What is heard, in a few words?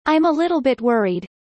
fast; studying abroad